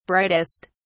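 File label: "brightest", British English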